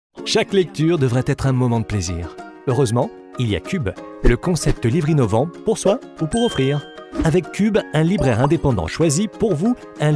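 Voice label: pub, voice over; French; kube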